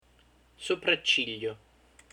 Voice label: "sopracciglio", Italian